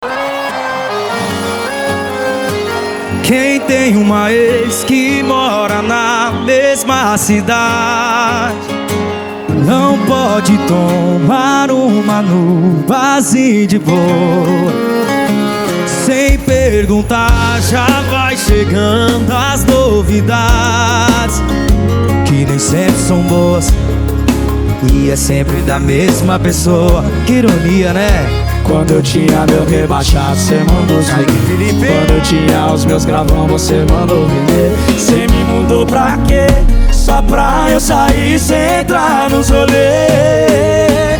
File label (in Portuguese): locutor a voz magnifica